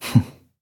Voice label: ko greeting; kohlrabi